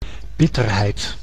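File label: "bitterheid", Dutch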